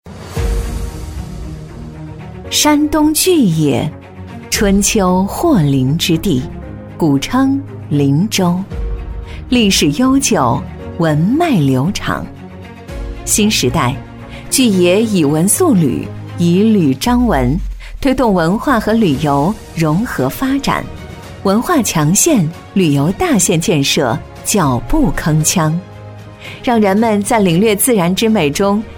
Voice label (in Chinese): 女, 城市宣传, 沉稳大气; 山东巨野